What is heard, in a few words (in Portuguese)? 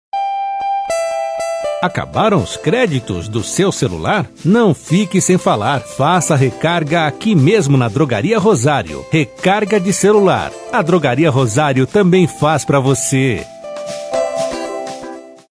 spot recarga celular; ofertas; shopping; ROSARIO